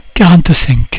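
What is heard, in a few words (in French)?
"quarante cinq", French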